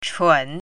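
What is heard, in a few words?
"chun3", Mandarin Chinese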